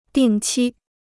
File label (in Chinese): "定期", Chinese